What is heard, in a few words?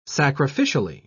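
"sacrificially", English, British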